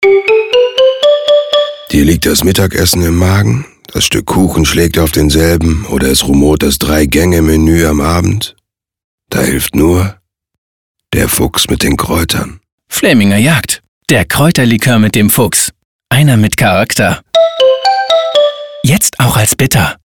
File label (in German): Funkspot; FlämingerJagd; Der Fuchs hilft; bunch sound; Berlin; Funkspotproduktion